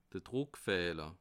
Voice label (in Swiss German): "druckfehler", alsace